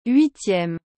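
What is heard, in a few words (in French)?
"huitieme", French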